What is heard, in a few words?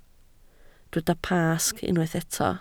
"Pasg", Welsh